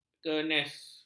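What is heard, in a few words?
"kenes", Malay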